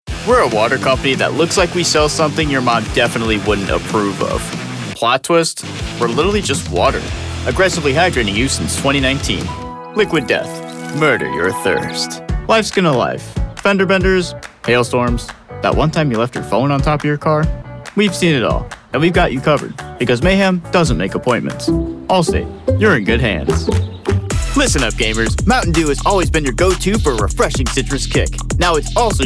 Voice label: vo commercial